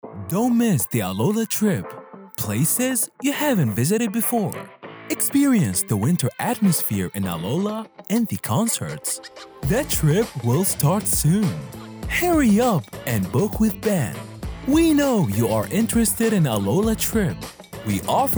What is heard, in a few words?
voiceover